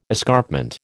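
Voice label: "escarpment", American English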